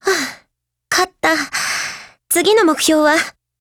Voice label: WIN, JP; Ballista